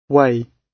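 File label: "way", British English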